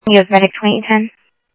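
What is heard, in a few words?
fire radio dispatch, Phoenix Fire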